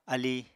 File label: "alíi", Apatani